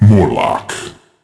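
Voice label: Announcer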